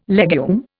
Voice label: "legume", Indian